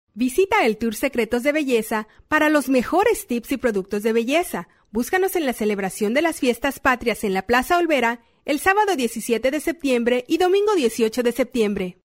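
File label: Spanish Latin America female narrative; spanish americas voice over talent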